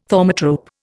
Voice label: "thaumatrope", Indian